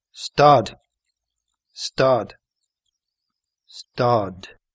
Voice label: stop irish